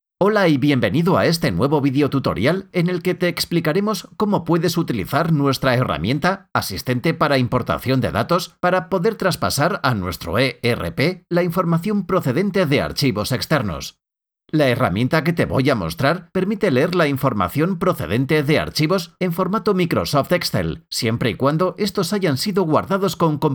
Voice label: spanish